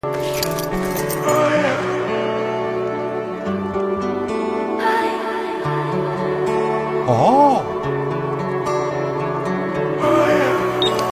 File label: japanese ohh yeah